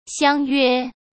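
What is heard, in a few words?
"相约", Mandarin Chinese; chinese flashcards; hsk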